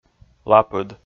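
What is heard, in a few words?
"лапыд", Komi, male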